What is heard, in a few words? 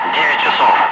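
Russian speech, Ka-50 Wingman; 9 o'clock; DCS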